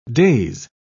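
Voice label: "days", English, British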